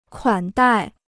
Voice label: "款待", Chinese

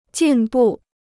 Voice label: "进步", Chinese; jìn bù; progress; improvement; to improve

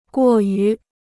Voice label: "过于", Chinese

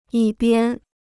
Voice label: "一边", Chinese

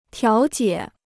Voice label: "调解", Chinese

